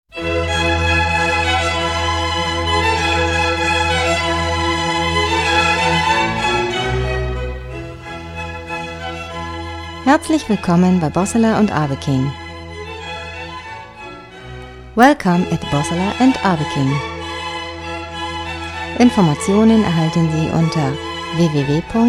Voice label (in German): ansage